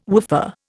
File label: "woofer", Indian